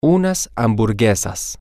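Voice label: some hamburgers, Spanish, male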